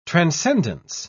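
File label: "transcendence", British English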